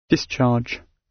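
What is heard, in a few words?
"discharge" British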